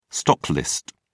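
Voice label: "stocklist", English, British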